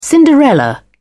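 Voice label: "cinderella", British English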